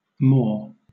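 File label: "maw", British English; pronunciations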